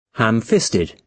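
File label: "ham-fisted", British English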